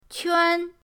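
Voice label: "quan", Mandarin pinyin, first tone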